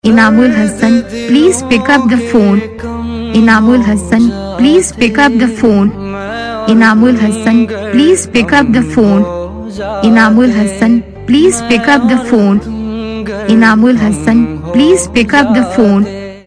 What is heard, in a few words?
pickup the phone saying, Ringtones; Your Name Ringtones A To Z Names